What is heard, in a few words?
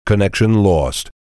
connection lost male; teamspeak